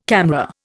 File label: "CAMRA", Indian